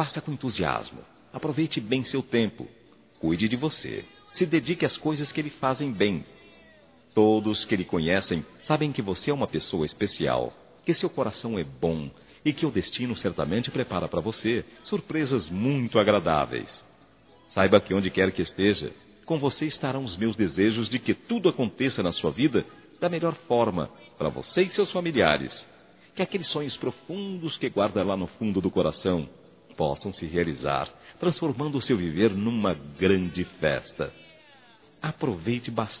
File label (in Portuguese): Boas Férias Masculino, Telemensagens; Telemensagens 2017